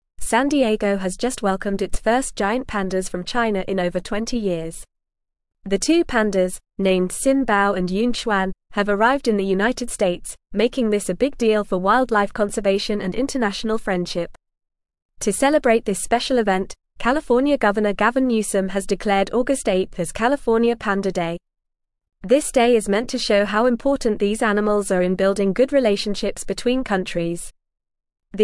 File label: English Upper Intermediate FAST Reading; Giant Pandas Arrive in San Diego for Conservation; English Newsroom